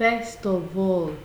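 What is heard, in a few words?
"first of all", Nigerian Pidgin, female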